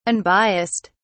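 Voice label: "unbias(s)ed", British English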